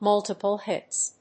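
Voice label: "multiple hits", English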